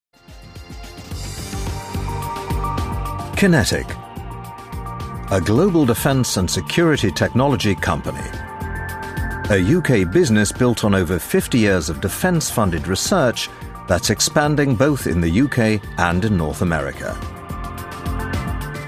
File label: Infommercial; Qinetiq